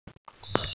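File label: "jin1yu2", Mandarin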